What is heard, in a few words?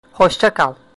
goodbye, turkish